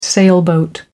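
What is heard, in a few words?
"sailboat", British English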